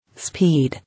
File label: "Speed", English